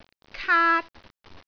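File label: "kaat1", Cantonese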